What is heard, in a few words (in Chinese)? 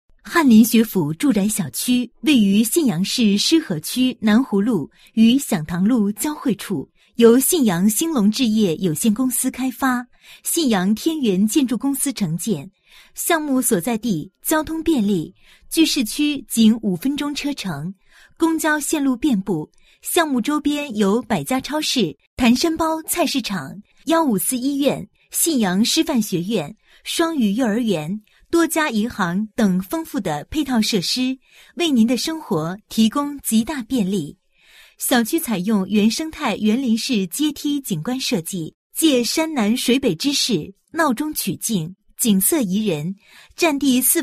女 专题 住宅小区; 翰林学府